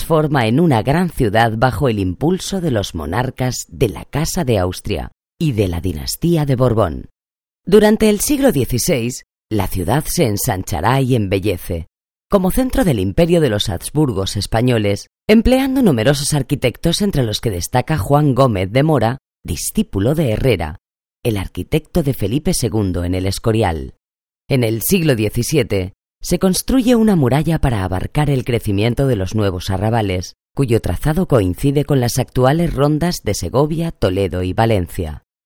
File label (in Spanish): audioguia madrid; Audioguía cultural para la divulgación de los monumentos de Madrid. Visita cultural.